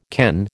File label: "ken", American